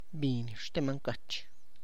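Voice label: Tlacochahuaya Zapotec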